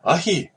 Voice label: fire, hawaiian